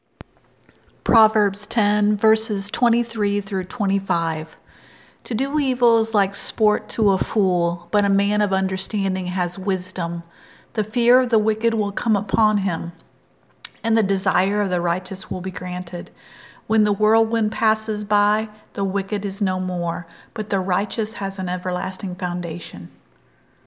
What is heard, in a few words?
Childrens Bible Verse